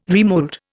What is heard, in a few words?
"remold", Indian